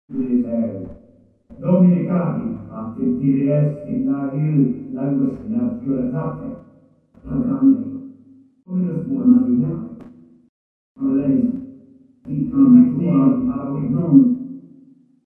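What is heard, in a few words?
Indistinct Speech; Eternal Darkness Requiem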